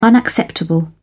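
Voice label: "unacceptable", British English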